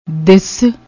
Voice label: "dis", Punjabi; ਦਿੱਸ